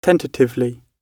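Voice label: "tentatively", British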